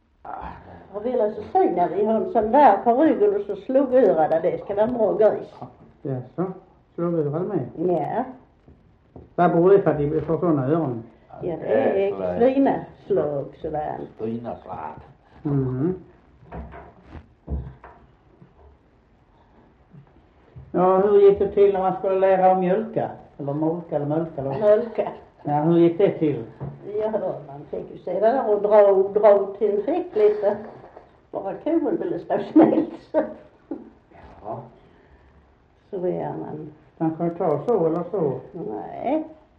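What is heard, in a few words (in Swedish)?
Västra Torup Skåne, dialekter; Folkminnen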